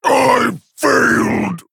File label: Male Orc Death